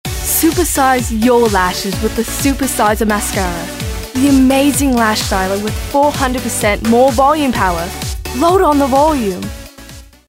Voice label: Brit; Mascara